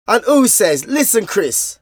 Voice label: Vocals; Jungle Frenzy 1